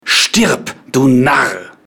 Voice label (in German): Narr